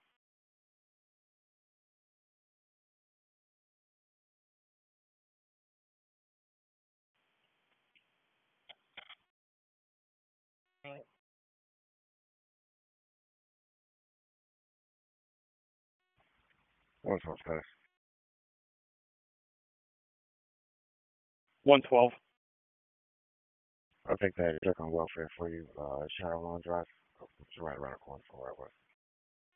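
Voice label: Police District Dispatch, p25; Raleigh Police Northeast District Disp; wake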